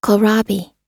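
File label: "kohlrabi", American English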